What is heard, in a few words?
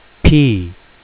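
name "p", 英語アルファベットの文字の名前; phonics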